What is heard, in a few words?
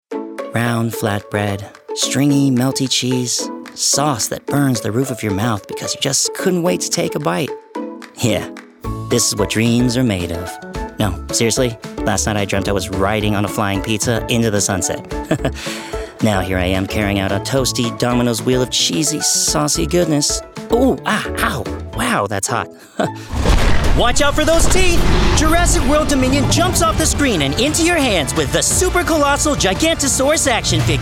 Commercial